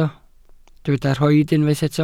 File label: "rhoid", welsh